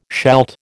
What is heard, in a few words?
"shalt", American